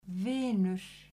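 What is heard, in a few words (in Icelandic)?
"vinur", Icelandic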